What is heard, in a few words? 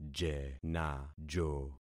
"jenajo", concat syllables; Affixing experiment